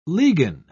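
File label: "ligan", English, British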